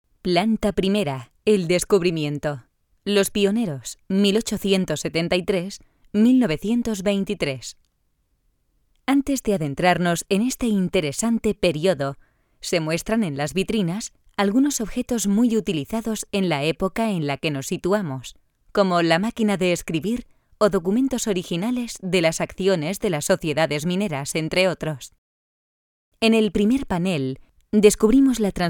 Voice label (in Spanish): audioguia; audioguia museo de la mineria; esp